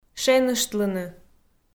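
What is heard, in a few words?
"шеныштлыны", Komi, female; kpv audio dict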